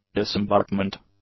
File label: "disembarkment", English; nouns